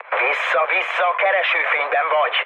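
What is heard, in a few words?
Hungarian searchlite mood med, speech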